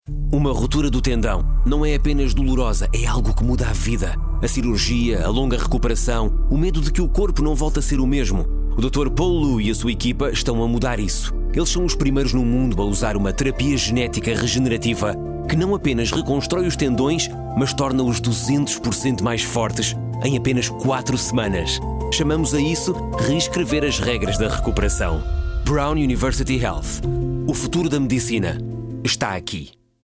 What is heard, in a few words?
Portuguese Radio; Plastic Surgery; Illumination Campaign